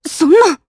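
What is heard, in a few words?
Vox, voices, Japanese; Glenwys; kingsraid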